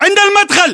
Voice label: landmark near int doorway, english battlechatter